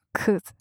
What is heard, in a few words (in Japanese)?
"クズ", 強く, 極近, 左; マゾオスのあなたに敗北射精の快楽を叩き込む音声